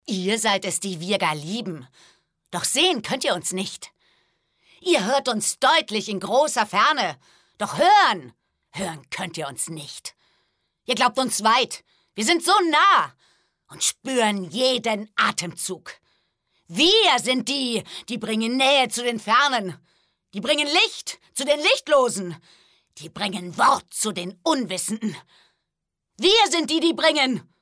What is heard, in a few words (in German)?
aggressiv, frauen